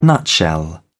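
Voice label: "nutshell", English, British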